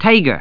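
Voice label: "tiger", English word; 単語絵カード * どうぶつ; とら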